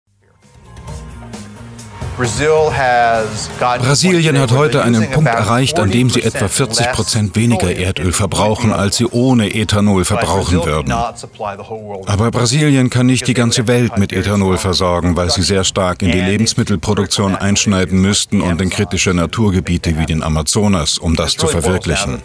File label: documentation voice over; BBC